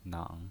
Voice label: "nang3", pinyin